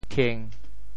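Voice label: "thieng1", Teochew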